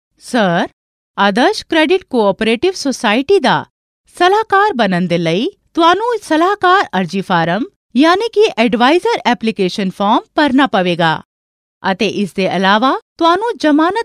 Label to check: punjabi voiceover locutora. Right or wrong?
Right